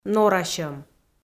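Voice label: "норасьӧм", Komi, female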